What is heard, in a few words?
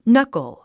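"knuckle", English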